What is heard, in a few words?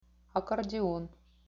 "akkordion", Russian